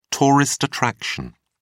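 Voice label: "tourist attraction", British English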